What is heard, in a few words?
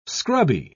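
"scrubby", British English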